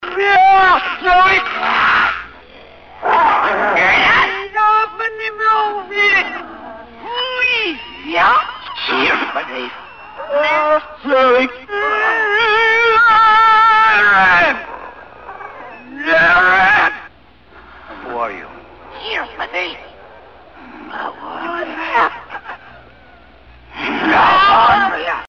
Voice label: gibberish